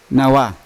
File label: "nawa" mouth, Yawa